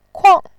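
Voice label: "kuang4", Mandarin Chinese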